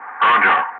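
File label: "roger", English; Ka-50; Wingman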